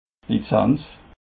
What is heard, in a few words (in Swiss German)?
"lizenz", alsace